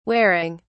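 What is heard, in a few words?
"waring", English, British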